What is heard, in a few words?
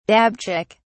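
"dabchick", British English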